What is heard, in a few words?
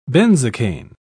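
"benzocaine", English, British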